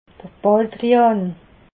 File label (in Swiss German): "baldrian", alsace